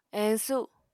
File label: "eñsu", Apatani